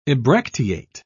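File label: "ebracteate", British English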